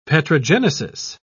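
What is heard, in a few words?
"petrogenesis", English, British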